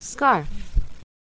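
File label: "scarf", English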